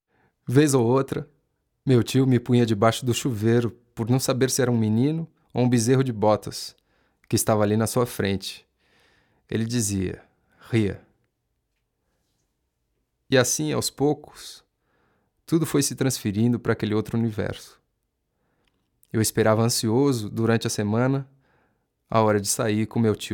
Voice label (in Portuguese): NARRACAO; meu tio lobisomem